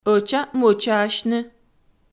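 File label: "ӧча-мӧчаасьны", Komi, female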